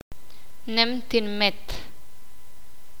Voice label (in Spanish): "Ciudad", Sakapulteko